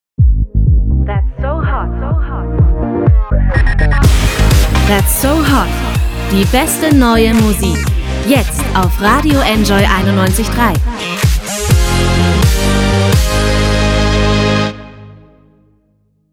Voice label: JINGLE HOT AND NEW; NJOY 913